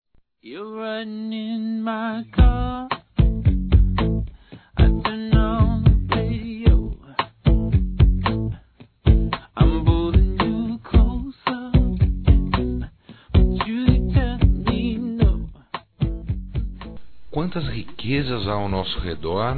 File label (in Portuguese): NEUTRO, masc; Aniversários; Aniversários diversos